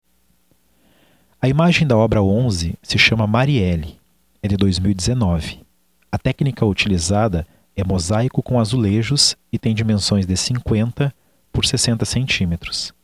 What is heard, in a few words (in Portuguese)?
audiodescrição; galeria virtual